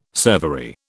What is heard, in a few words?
"servery", British